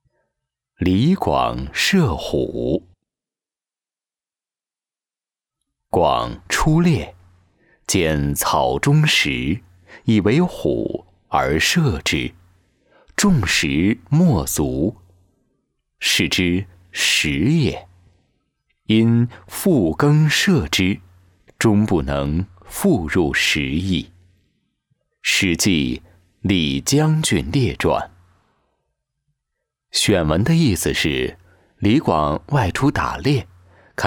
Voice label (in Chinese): 课件解说古文类